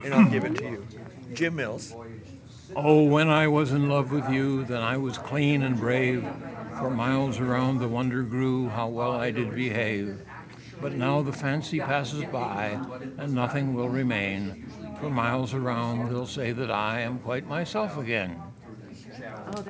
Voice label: Poem